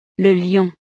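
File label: "le lion", French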